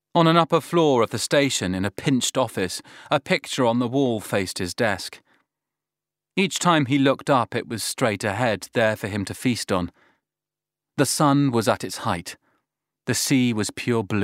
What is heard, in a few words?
Audiobook